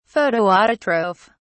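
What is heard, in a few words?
"photoautotroph", British English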